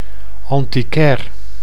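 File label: "antiquair", Dutch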